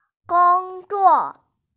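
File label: "gong1 zuo4", Mandarin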